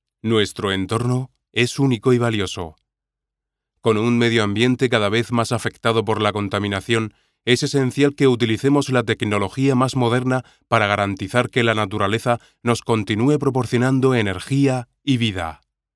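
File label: spanish male narration; voice demos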